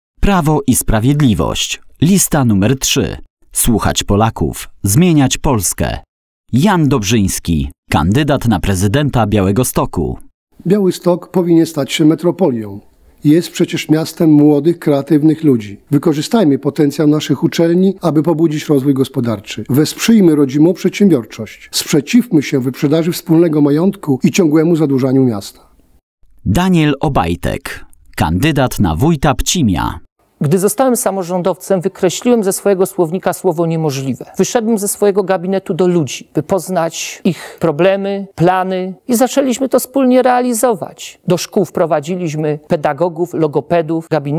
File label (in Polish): Spot radiowy